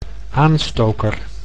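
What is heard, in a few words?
"aanstoker", Dutch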